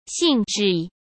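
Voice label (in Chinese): "兴致", Mandarin Chinese; hsk; chinese-flashcards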